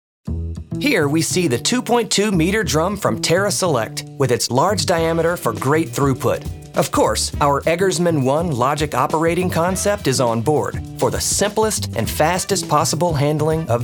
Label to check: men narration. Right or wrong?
Right